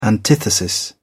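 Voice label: "antithesis", British English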